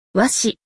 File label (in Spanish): "Aguila", español; Relaciona Palabras en Ingles